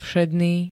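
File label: "všedný", Slovak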